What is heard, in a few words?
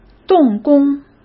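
"动工", Mandarin Chinese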